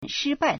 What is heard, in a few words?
"shi1bai4", Chinese